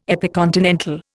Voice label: "epicontinental", Indian